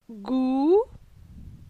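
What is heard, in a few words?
"Gou", French; phonetique; la syllabe ou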